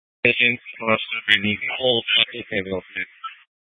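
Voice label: Fire Dispatch, scanner audio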